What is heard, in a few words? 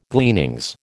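"gleanings", American English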